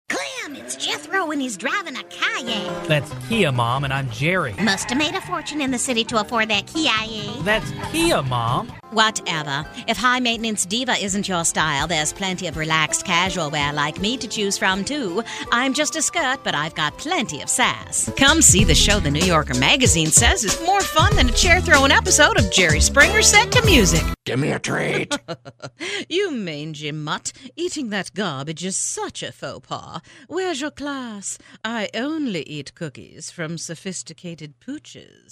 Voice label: Characters Female, Commercial Voiceover, Character; Commercial Voiceover, Auto Dealer